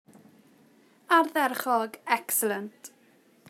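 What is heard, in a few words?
"ardderchog" excellent, Welsh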